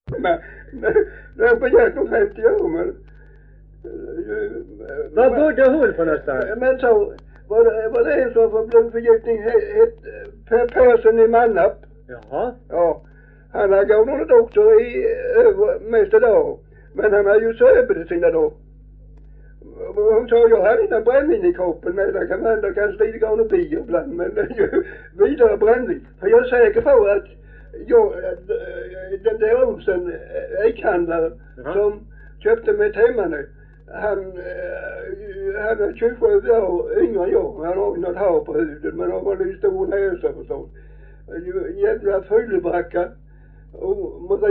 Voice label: Farstorp, dialekter, sagor; Folkminnen; Svenska sagor

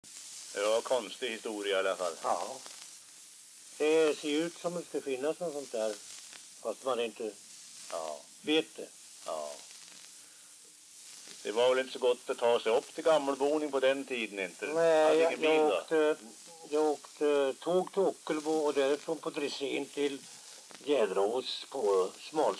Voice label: dialekter; Folkminnen; Svenska sagor

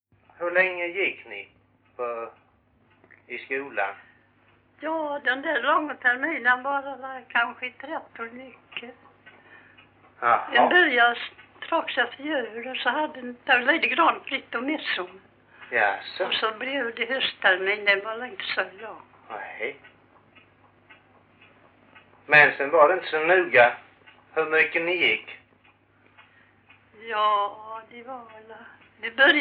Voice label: Grimeton, dialekter; Folkminnen

